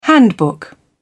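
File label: "handbook", British English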